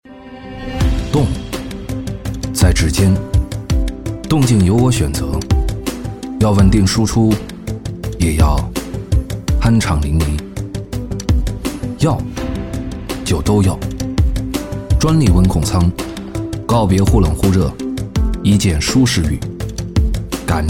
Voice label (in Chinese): 男 科技专题 科技大气; 质感大气; 大气浑厚